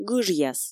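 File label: "гыжъяс", Komi, female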